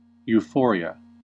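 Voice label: "euphoria", US English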